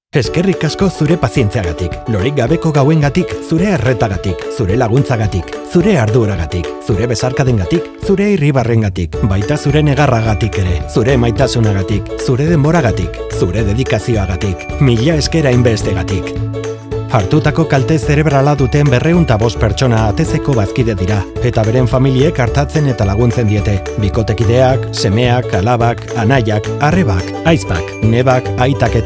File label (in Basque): PUBLICIDAD EUSK; ATECE